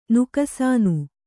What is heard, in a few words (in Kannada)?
"ನುಕಸಾನು", Kannada